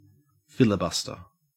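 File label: "filibuster", Australian English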